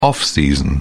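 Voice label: "off-season", British English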